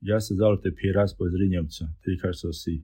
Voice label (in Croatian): romski; POP UP Zagreb